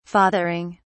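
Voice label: "fathering", British English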